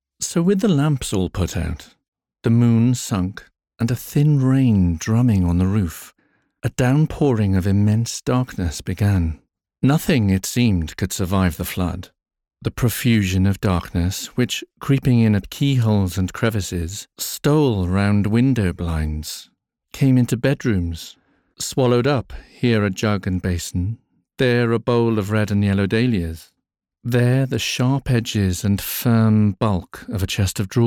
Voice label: Narrative ENG, voice artist